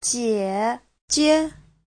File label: "jie3 jie5", chinese